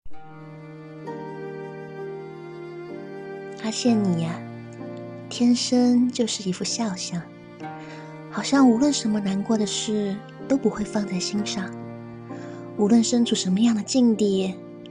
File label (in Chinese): 温柔少女音